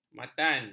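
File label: "matan", Malay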